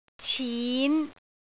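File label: "cin5", Cantonese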